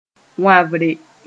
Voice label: "wãbre", Mooré; chewing

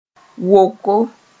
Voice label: "woko", moore

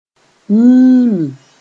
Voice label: "yĩimi", Mooré

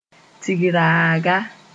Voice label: "tig-raaga", Moore; festival market

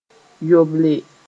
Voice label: "yoble", Mooré

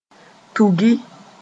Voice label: "tugi", moore

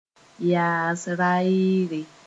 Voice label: "yaas-raeere", Moore

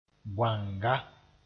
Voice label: "bõanga", moore

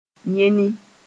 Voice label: "yẽnɩ", moore

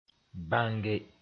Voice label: "bãnge", Mooré

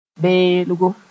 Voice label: "beelgo", Mooré

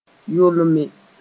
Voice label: "yolme", Mooré; trim down

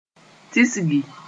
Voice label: "tisgi", Moore; allow to settle liquid)

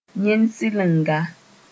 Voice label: "yẽn-sɩlenga", Mooré

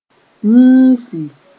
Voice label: "yĩisi", Mooré

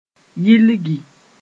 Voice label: "yilgi", Mooré

